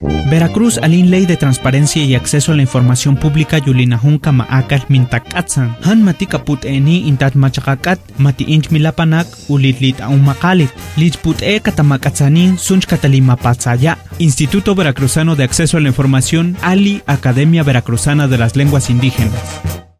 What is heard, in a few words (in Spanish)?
tepehua spot